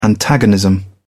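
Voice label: "antagonism", British English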